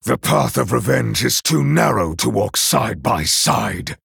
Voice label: Male Night Elf